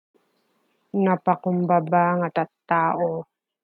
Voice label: Ilocano; humble people - napakumbaba nga tattao